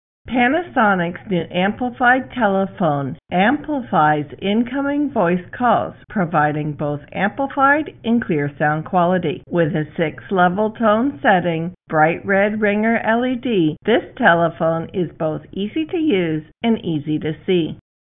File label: Amplified Phones KX-TGM4xx Slow Talk